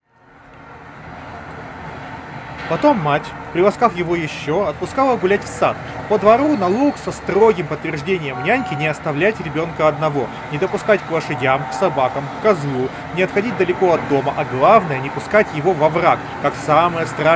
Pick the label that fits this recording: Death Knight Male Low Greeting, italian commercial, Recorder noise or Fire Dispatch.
Recorder noise